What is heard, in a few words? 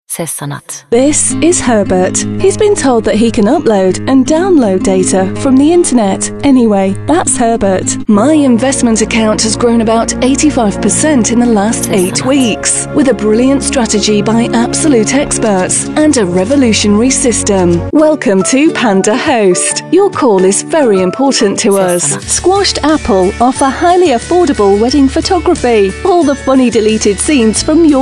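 English British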